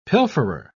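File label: "pilferer", British English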